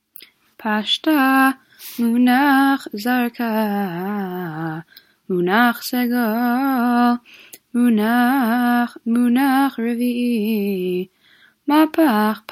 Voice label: polish lithuanian torah taamim